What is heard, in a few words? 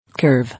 "Curve", English